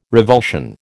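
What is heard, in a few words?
"revulsion", American English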